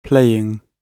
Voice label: "playing", GB